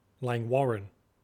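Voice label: "langwarrin", Australian English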